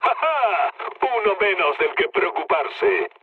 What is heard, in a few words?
player killed enemy, mood med, speech